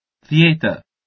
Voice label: "Djete" Albanian